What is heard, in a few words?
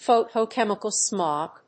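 "photochemical smog", English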